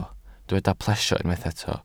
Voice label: "plesio", welsh